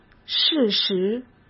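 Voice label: "事实", Mandarin Chinese; chinese-flashcards; hsk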